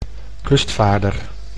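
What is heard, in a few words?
"kustvaarder", Dutch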